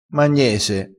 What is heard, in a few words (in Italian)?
"magnese", crespinese